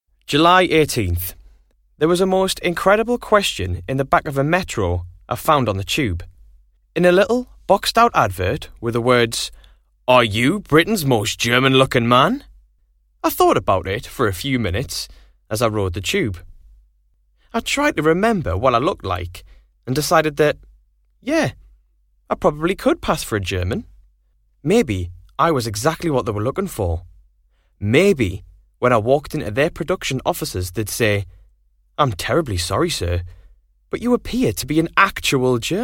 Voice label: Narration